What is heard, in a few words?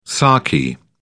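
"sarky", British English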